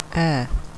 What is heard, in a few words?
"air", English phonetics